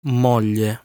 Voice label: "Moglie", Italian